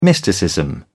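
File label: "mysticism", British English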